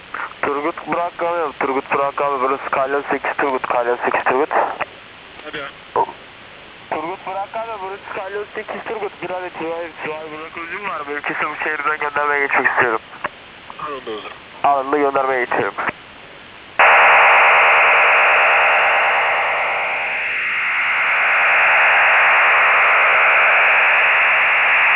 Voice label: 8385khz usb voices tur